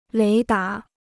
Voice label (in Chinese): "雷达", Chinese